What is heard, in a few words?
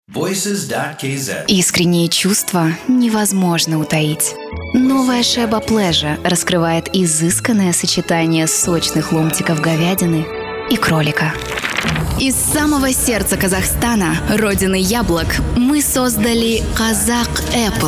ru